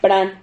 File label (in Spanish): "pran", Silabas